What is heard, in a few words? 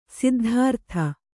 "ಸಿದ್ಧಾರ್ಥಿ", Kannada